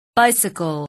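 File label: "bicycle", English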